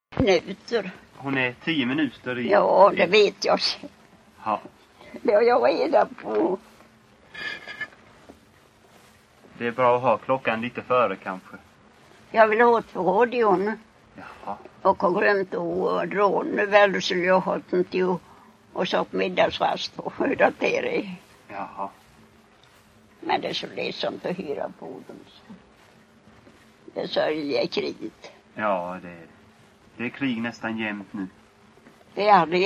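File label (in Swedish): Vireda Småland, Svenska sagor dialekter; Folkminnen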